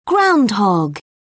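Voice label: "groundhog", British English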